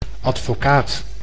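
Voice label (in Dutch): "advocaat", Dutch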